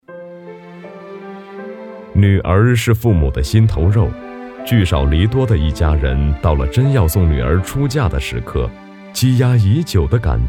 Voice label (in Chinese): 男-记录